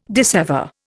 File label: "dissever", Indian